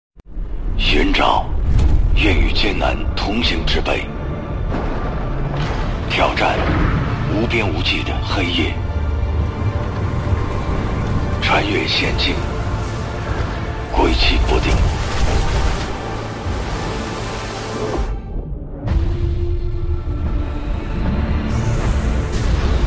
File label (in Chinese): 男 宣传片 手表